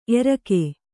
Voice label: "ಏರಿಕ್ಕು", Kannada